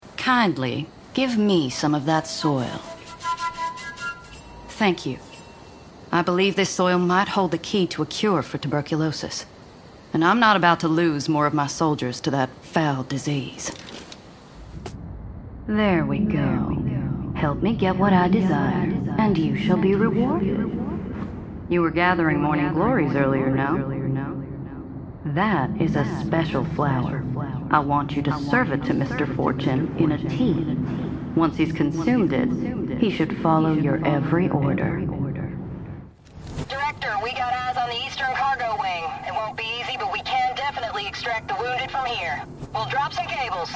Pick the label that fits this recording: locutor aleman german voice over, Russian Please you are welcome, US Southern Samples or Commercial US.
US Southern Samples